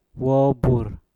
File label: "bubur", Mpur; bulu badan